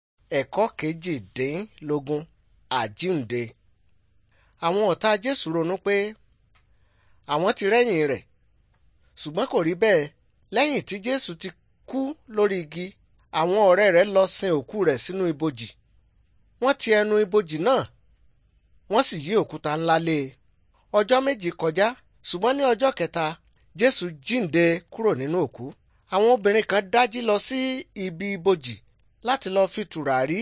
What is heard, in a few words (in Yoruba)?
good news yoruba